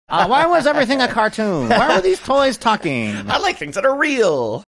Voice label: saying uh why was everything cartoon old timey newsreel voices